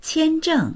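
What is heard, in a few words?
"qian1zheng4", Mandarin Chinese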